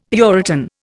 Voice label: "puritan", Indian; Dictionary